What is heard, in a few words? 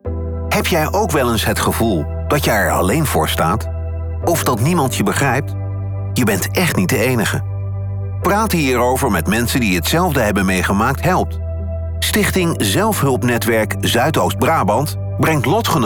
COMMERCIAL; ZELFHULP NETWERK ZUIDOOST BRABANT